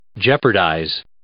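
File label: "jeopardize", English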